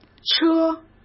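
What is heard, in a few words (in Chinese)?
"车", Mandarin Chinese; chinese flashcards; hsk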